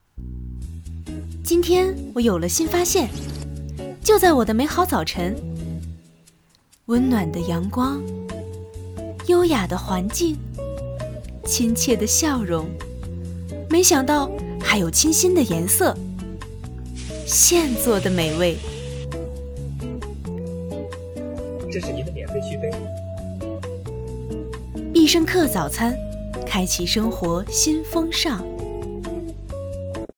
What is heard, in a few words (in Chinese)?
广告轻松